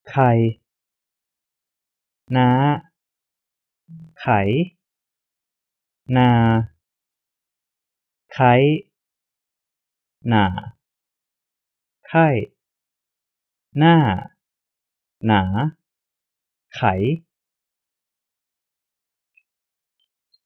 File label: thai tones